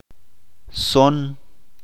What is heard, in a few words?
"Music", Chalchiteko